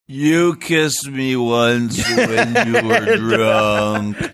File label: chopped screwed you kissed me once when you were drunk